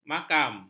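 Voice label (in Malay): "makam", Malay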